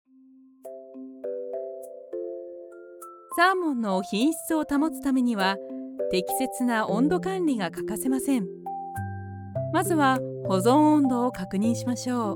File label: E-learning Educational